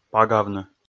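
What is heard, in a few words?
"пагавны", Komi, male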